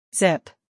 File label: "zip", English